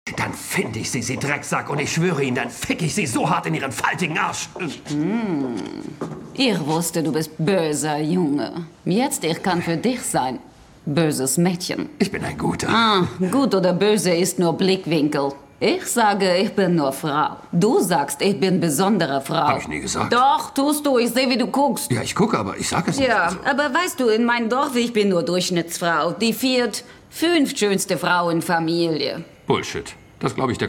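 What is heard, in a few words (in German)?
Synchron russischer Akzent; The Detour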